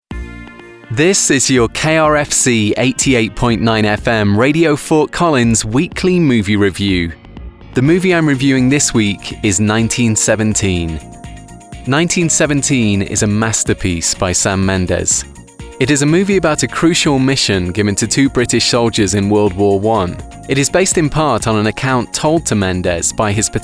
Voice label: Podcast Presenter